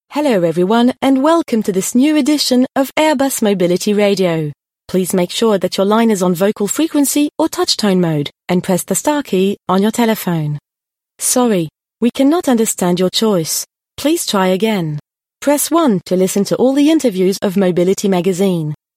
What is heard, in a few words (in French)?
Serveur Vocal Interactif SVI; Airbus